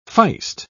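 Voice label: "feist", British English, dictionary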